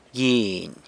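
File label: "gyiny", chili, San Lucas Quiaviní Zapotec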